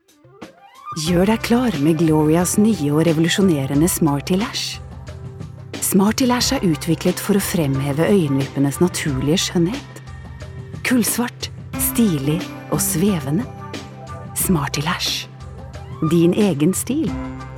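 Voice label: Norwegian soft sell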